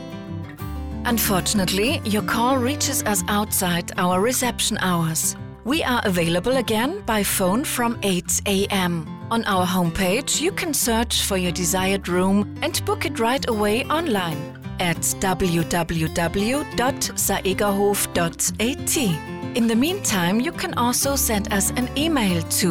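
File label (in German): Hotel Nachtansage Hauptsaison, Telefonanlagen; Hotel Saegerhof; telefonansagen hotels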